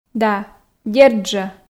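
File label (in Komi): да дерт жо, Komi, female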